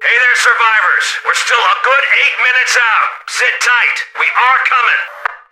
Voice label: radio in transit, soldier; npc; Left 4 Dead 2

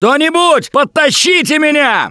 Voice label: Ledge Hang End, russian, Survivor Voice; Gambler

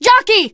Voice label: jockey, teen girl; Left 4 Dead 2

